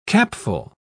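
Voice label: "capful", British English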